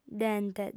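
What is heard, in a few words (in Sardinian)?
"dente", female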